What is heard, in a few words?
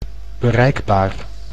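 "bereikbaar", Dutch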